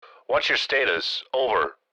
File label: Military radio voice question whats your status